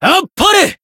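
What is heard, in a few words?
Voice finish, Japanese; shigure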